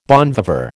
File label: "bon viveur", American